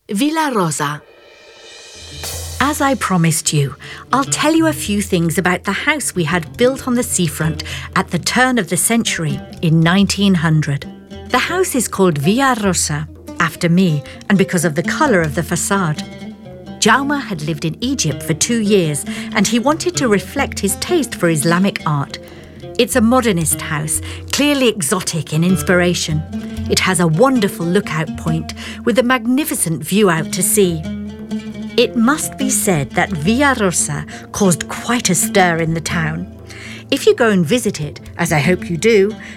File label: audioguia english